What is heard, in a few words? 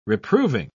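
"reproving", British English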